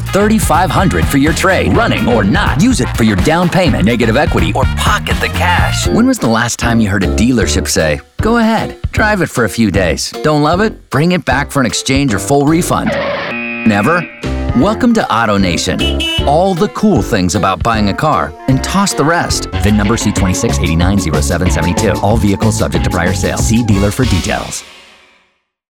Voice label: Automotive - Hard Sell, Friendly, Luxury, Guy Next Door, Soft Sell, Attitude, Matter of Fa; Hard Sell, Friendly, Luxury, Guy Next Door, Soft Sell, Attitude, Matter of Fact